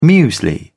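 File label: "muesli", British English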